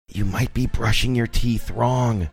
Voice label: quietly saying you might be brushing your teeth wrong